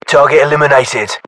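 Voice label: inform killfirm infantry, battlechatter, British; english; uk; localized english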